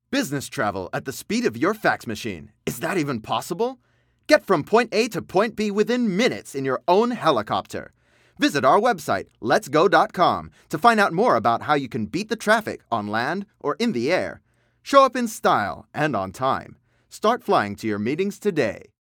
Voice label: English (Sales Pitch, Professional)